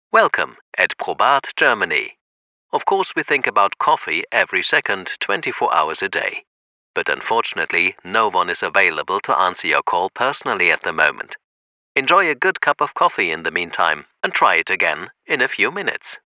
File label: telefonansage kaffee EN